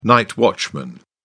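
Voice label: "night watchman", English, British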